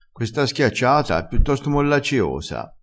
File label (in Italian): "mollaccioso", crespinese